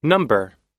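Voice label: "number", American English; number /nʌ́mbɚ; 番号,数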